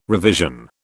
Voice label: "revision", British